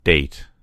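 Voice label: "date fruit", American English; gcompris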